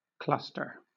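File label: "cluster", British English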